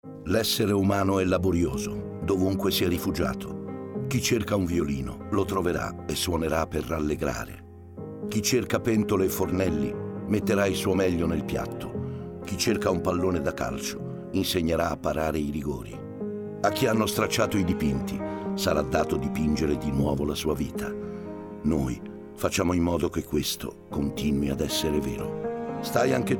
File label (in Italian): spot radio con musica